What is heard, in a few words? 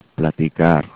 "platicar", Spanish; infinitive